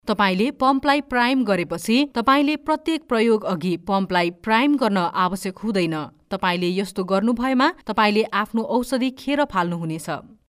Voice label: Nepali FEMALE